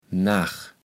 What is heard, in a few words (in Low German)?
"Nach", Platt